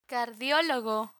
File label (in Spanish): "cardiologo", Spanish; medical medicine vocabulary; learn spanish